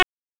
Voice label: Police North District Tac 1, p25; Raleigh; wake